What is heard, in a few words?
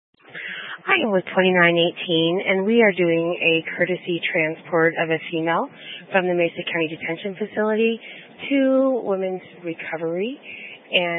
Sheriff Dispatch; Mesa County